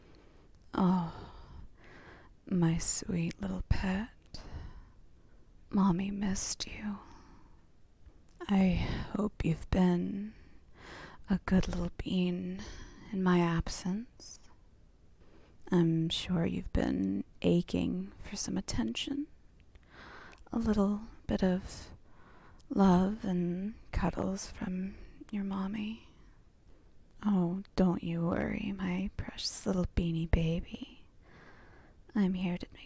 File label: F4A Kissing Teasing SFX Soft; [Mommy]; [Kabadon]; [Fdom]; [Light Degradation]; [Hand Riding]